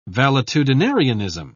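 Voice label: "valetudinarianism", British English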